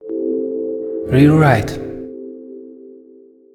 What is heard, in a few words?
audio channel rear right